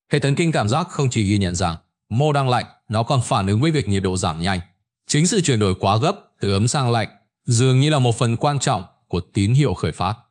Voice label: narration